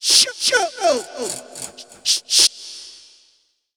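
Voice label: effects talk, Funky Vocals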